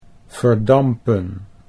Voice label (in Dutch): "verdampen", Dutch